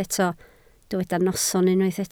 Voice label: "noson", Welsh

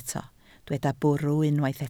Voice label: "bwrw", Welsh

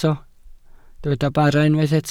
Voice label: "bara", Welsh

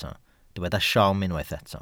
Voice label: "siom", Welsh

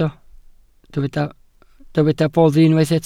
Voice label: "boddi", Welsh

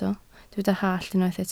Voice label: "hallt", Welsh

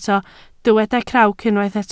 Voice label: "crawc", Welsh

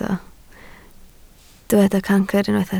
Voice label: "cancr", Welsh

